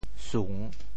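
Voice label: "sung3", Teochew; 潮州; xùn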